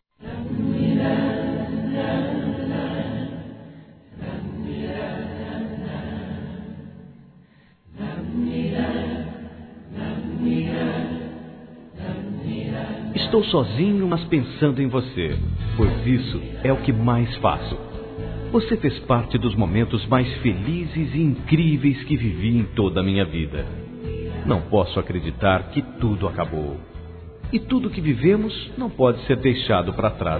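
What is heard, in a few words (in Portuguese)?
RECONCILIAÇÃO Masculino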